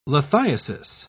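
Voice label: "lithiasis", British English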